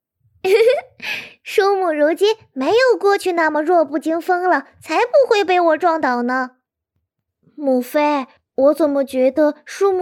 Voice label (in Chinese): 萝莉音